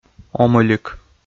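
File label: "омӧлик", Komi, male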